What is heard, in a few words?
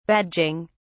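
"badging", British English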